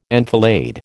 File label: "enfilade", American